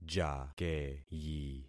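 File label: "jakeyi", concatenated syllables; Affixing experiment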